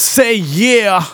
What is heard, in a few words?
Phrase Male Rap; Vengeance Samples